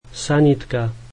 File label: ambulance, slovak